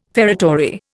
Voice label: "feretory", Indian